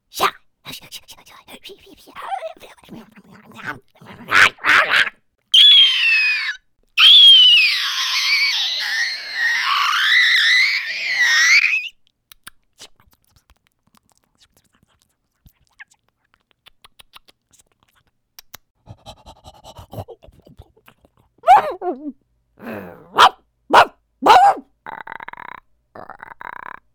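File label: animation female creatures, Voice Over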